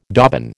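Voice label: "dobbin", American English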